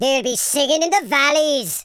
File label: collect, Welsh; speech